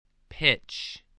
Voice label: "pitch", voice spelling